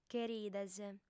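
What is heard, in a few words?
"cherides", female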